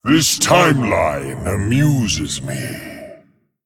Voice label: Male Dragon

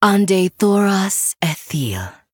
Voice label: Female Night Elf; Kurtrus

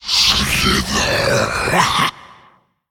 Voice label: Male Faceless Attack

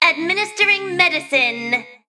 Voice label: Female Mech Play

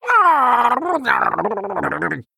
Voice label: Male Murloc Victory; Errgl

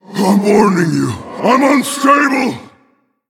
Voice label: Male Elemental Play

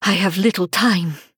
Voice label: Female Draenei

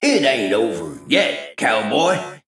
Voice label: Male Mech Combat Loss

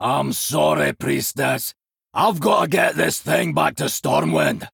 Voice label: Male Dwarf; Tavish

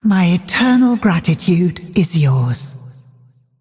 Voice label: dragon, Emote Thanks

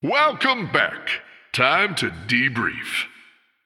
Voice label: Male Human General

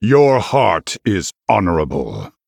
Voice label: Male Human Emote Well Played

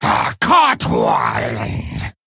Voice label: Male Human Attack

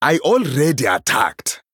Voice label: Male Troll ERROR I ATTACKED

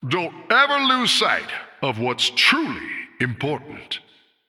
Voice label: Male Human Flavor

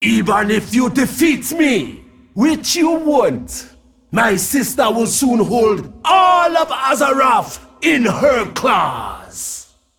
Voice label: Male Dragon

